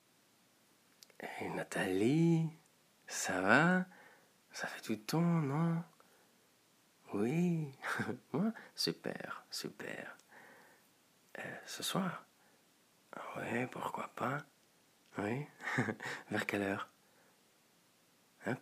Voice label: Französisch

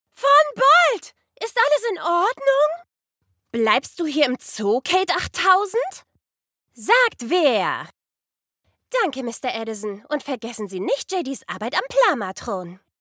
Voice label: Synchron Trickstimme

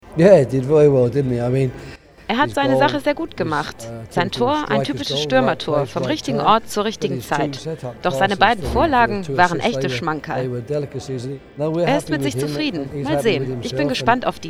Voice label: Voice Over Sport

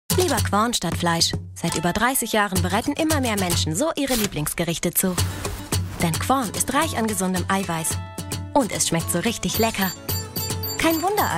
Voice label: Werbung; Quorn

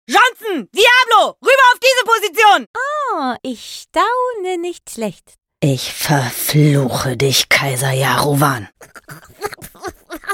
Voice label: Zeichentrick Lokalisierung

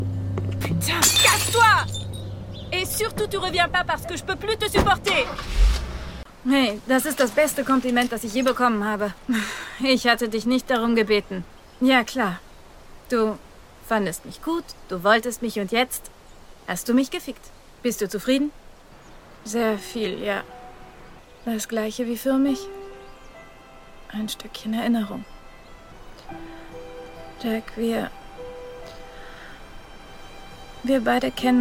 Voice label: franz akzent; junges, französisches Mädchen (Evelyn); Film

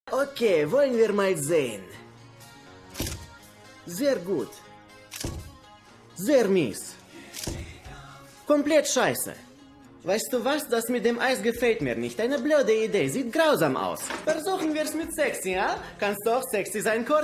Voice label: Synchron Comedy; russischer Akzent

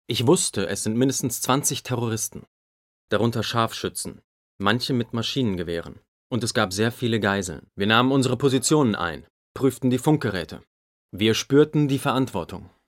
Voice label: Voice Over Doku; Beslan

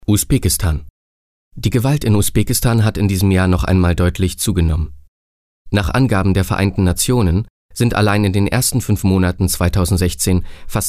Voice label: Nachrichten; Usbekistan; Meldung

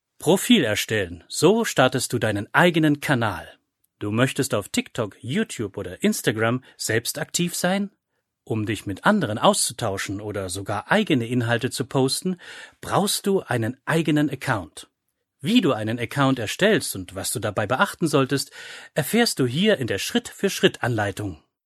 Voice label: Erklaerfilm; Eklärfilm; Soziale Netzwerke

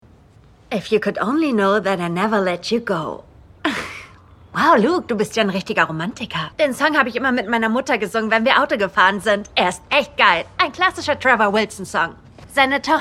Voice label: Synchron; Julie

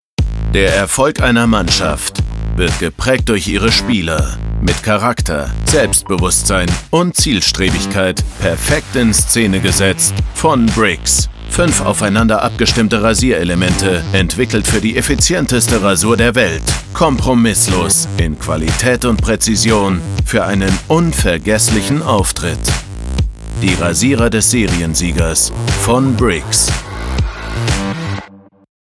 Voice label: männlich

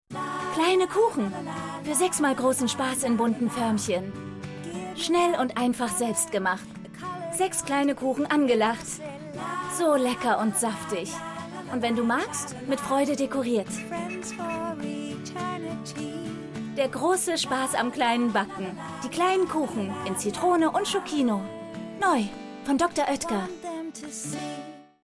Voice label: Werbung; Dr.Oetker; kleine Kuchen

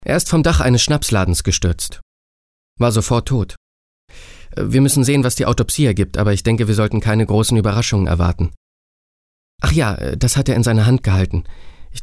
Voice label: Junger Assistent; Realfilm

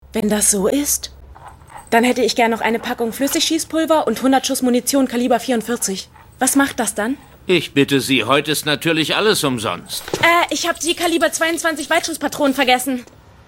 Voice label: DEUTSCHE SYNCHRON; Kinos Journey

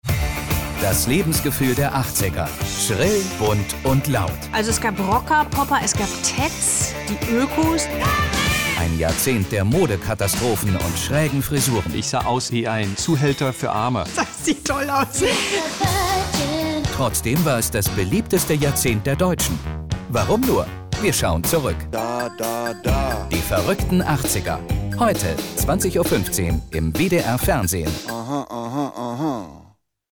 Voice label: Promo; Die verruchten 80er; Radio-Promo

